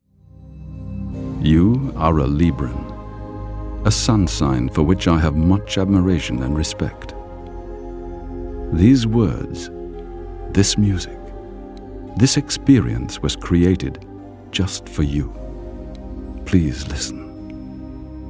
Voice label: Narrations

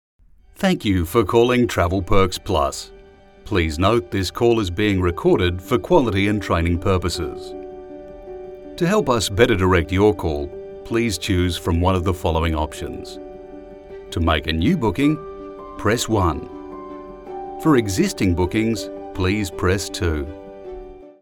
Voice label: IVR with bed; Travel Perks Plus; English (North American)

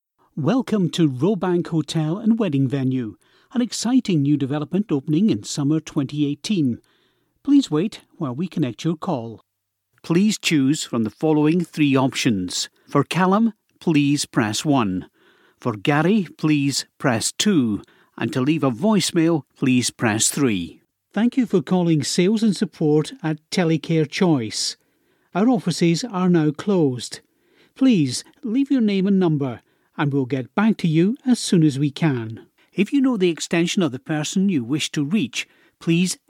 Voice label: On Hold

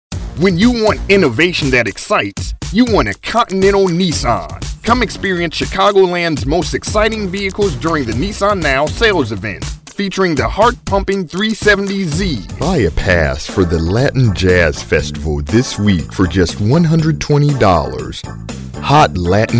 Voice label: Radio commercial

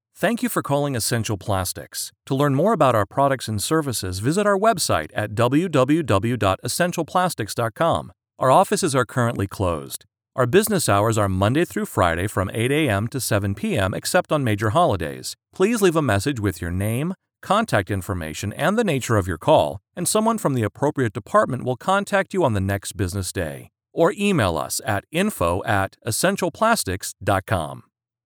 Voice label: IVR On Hold

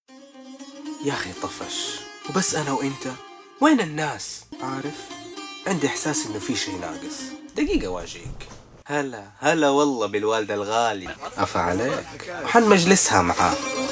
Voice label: Ramadan radio ad VO; PEPSI

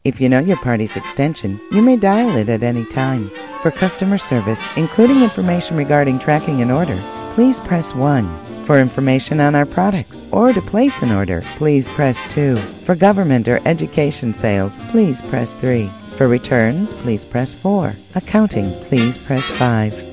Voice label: Answering System

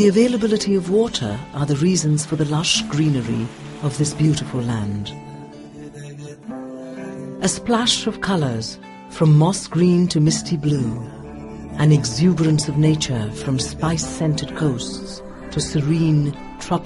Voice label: Kerala Tropics Audio

